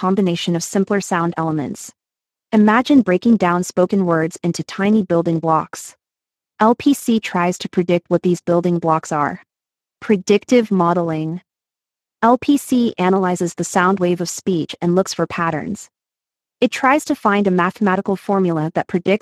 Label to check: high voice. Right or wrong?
Right